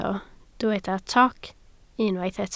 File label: "toc", Welsh